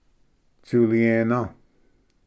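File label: "tsuliyena", Cherokee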